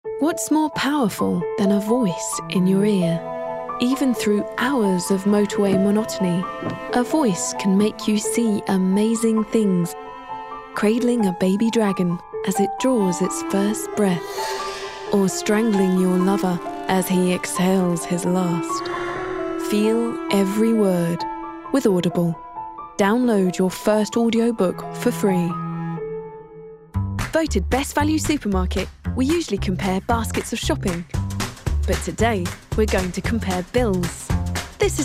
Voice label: english female voices british accent